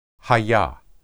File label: "hayah", Hebrew